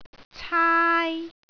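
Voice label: "caai1", Cantonese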